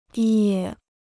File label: "野", Chinese